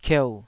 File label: "kieu3", taiwanese syllables